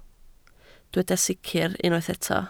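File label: "sicr" Welsh, spoken word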